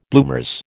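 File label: "bloomers", American English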